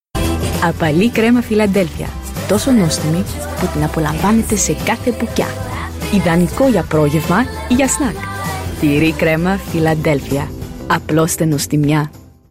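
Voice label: Greek, female, commercial; Philadelphia; voice over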